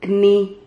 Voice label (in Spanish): "cni", Silabas